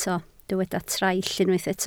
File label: "traill", Welsh